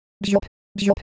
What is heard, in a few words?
Korean Sound; ideophones